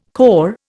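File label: "corps", Indian English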